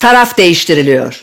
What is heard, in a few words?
teamswap in, announcer; Valorant